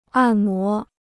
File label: "按摩", Chinese